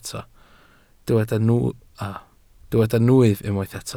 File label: "nwyf", welsh